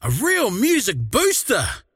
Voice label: croak big; dota; largo

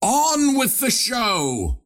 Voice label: teleport pain; ringmaster

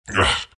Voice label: anger; dota; earth spirit

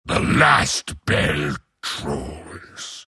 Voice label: troll death; troll warlord

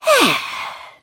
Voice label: happy; snapfire

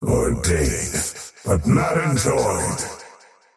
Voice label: last hit pain, faceless void; dota

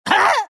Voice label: pain; dota; meepo

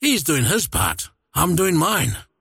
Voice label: last hit pain; dota; largo

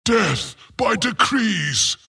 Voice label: wraith death; dota; skeleton king

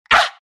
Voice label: naga pain; dota; naga siren

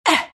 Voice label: temp pain; templar assassin

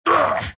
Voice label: troll pain; dota; troll warlord

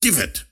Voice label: lasthit pain; ringmaster

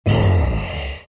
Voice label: treant happy

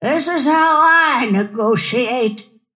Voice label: attack pain; snapfire

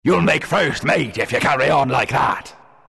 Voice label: announcer level up first mate, pirate; dlc workshop; capn

